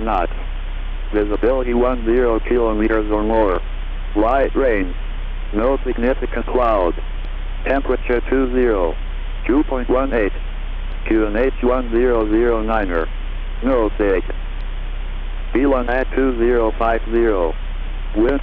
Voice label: Copenhagen volmet received with gqrx and funcube dongle